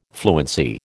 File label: "fluency", American